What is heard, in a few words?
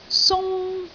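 "sung1", Cantonese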